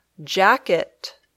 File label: "jacket", English